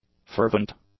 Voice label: "fervent", english; adjectives